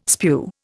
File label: "spew", Indian